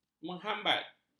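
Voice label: "menghambat", Malay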